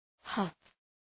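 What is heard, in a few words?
"huff", English; {hʌf}; θυμός; οργή; ξεφυσάω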